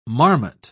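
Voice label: "marmot", British English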